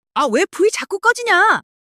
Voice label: anger; monologue

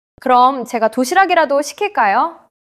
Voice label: neutral, monologue

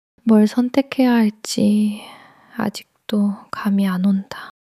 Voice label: sadness

